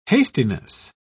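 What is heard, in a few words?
"hastiness", British English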